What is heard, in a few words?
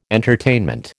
"entertainment", American